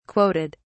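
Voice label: "quoted", English, uk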